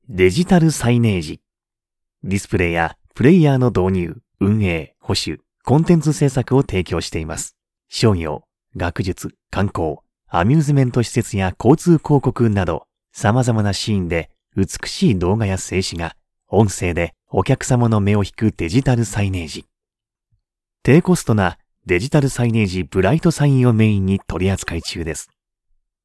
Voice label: male; 企業紹介